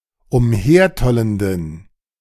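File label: "umhertollenden", German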